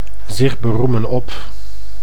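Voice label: "zich beroemen op", Dutch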